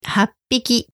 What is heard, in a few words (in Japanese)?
"happiki", Japanese